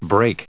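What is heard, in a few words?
"break", English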